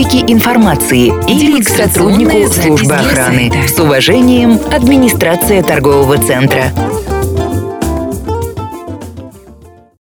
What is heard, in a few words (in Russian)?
Куда обращаться, если в ТЦ потерялся ребенок, женский